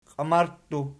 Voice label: "qiamarttu", Archi